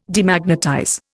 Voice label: "Demagnetize", Indian